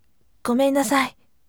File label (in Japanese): voice gomennasai sikkari